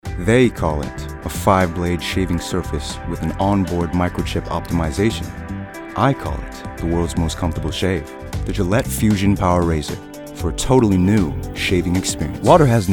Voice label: Commercial VO